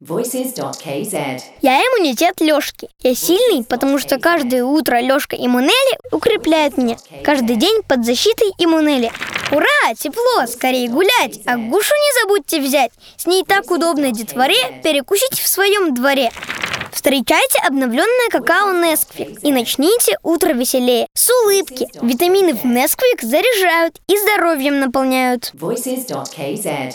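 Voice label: Russian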